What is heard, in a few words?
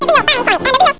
fast gibberish